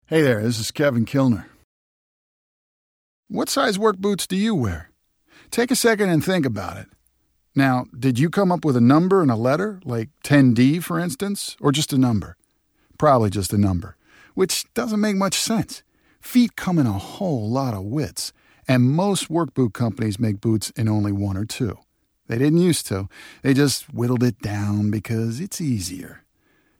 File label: commercial; Innovative LA